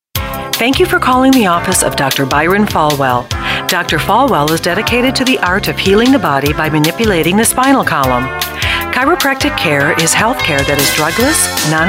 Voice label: On-hold (female)